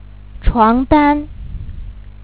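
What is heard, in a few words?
"床单", Chinese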